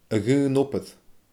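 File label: "a dhaon obair", Scottish Gaelic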